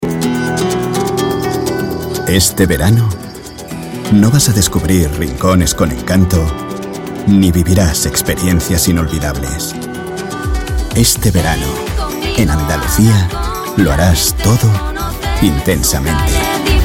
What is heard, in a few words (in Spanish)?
Cuña genérica; intensamente